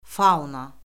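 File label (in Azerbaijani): "fauna", Azerbaijani; Orfoqrafiya Lüğəti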